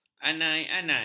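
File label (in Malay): "anai-anai", Malay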